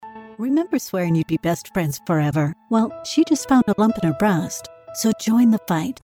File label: Breast Cancer PSA